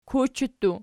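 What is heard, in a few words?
"kuchi" plural, Archi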